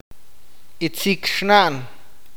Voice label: hermana mayor, awakateko

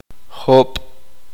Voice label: cinco, uspanteko

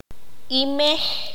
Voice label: "seno", Q'anjob'al

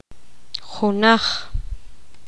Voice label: poqomam uno

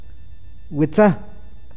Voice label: ojo, awakateko

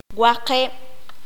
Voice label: "seis", Q'anjob'al

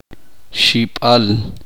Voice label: uspanteko hermano de mujer

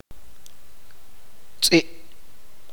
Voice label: "perro", chuj

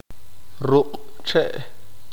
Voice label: "rama", qeqchi

